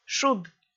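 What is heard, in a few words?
"шуд", Komi, female; kpv audio dict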